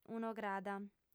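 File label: "un'ograda", Sardinian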